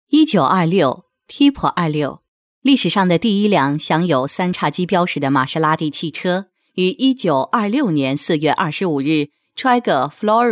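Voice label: Mandarin Chinese, female, voice over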